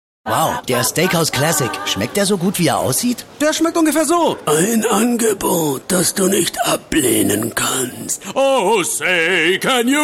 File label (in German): Spots SZENE, maenner; McDonalds; SteakHouse Classic